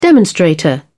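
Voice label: "demonstrator", British English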